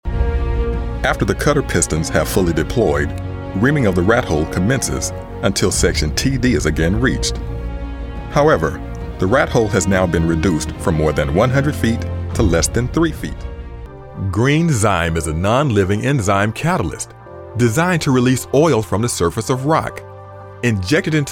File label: e-learning